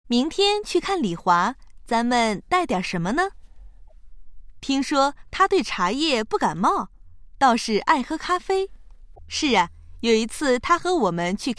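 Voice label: chinese mandarin female narration; voice demos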